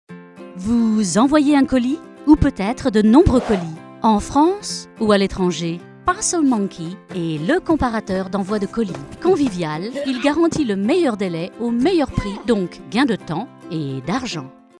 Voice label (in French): French Commercial